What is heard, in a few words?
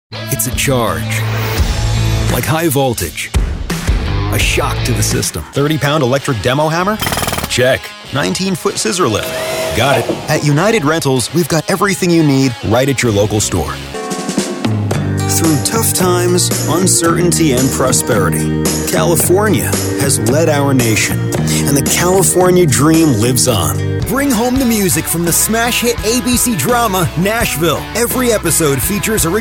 Commercial